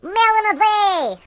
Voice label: Melon, Russian; hedgewars

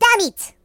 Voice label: Bugger, voices Russian; hedgewars

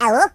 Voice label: "Hello", Russian; hedgewars

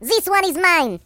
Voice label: Thisoneismine, Russian; hedgewars

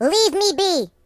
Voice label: Leave me alone, Russian; hedgewars

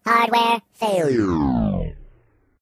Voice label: Oh dear, voices Robot; hedgewars

